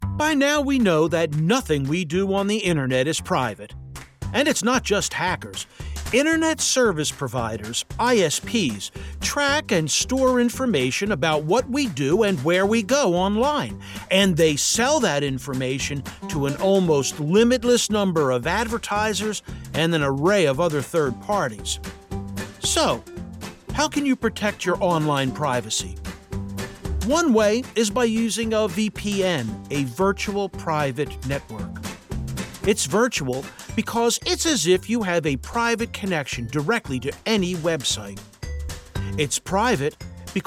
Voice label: EXPLAINER VPN